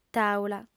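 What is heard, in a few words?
"tàula", female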